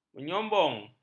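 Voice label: "menyombong", Malay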